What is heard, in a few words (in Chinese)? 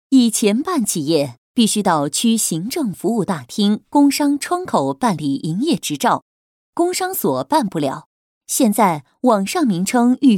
女, 政府建设; 工商局实行局所登记一体化宣传